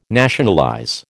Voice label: "nationalize", American

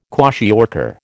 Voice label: "kwashiorkor", American

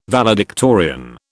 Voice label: "valedictorian", British

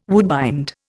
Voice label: "woodbind", Indian

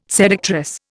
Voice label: "seductress", Indian

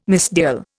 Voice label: "misdial", Indian

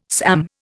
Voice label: "SAM", Indian

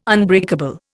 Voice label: "unbreakable", Indian

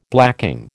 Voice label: "blacking", American

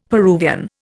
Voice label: "Peruvian", Indian; Dictionary

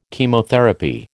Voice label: "Chemotherapy", American

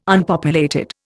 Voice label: "Unpopulated", Indian

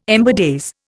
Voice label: "ember days", Indian

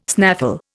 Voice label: "snaffle", Indian

